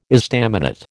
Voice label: "estaminet", American

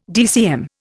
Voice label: "DCM", Indian

